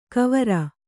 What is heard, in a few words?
"ಕುವರ", Kannada